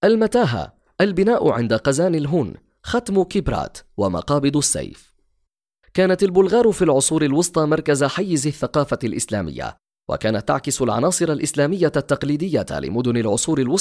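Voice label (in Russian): голоса, Arabic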